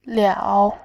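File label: "liao3", Mandarin Chinese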